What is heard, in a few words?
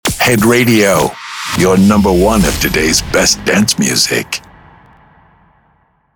Sweeper Short